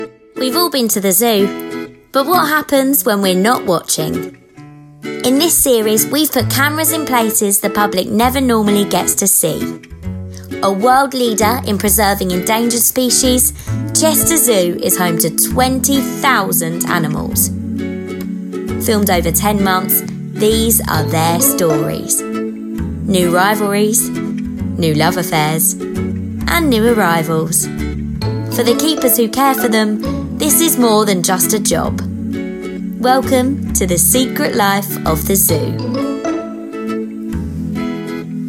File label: Docu; ZOO